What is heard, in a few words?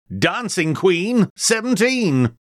call dancing queen 17, Bingo Calls; Bouncy Bingo Balls; Eyecon